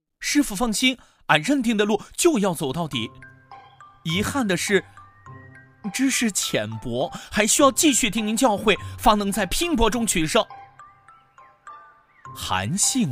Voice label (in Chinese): 有声书片段